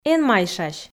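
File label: "эн майшась", Komi, female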